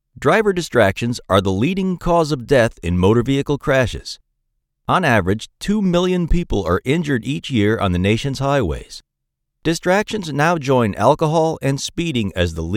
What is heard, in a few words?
elearning; driver distraction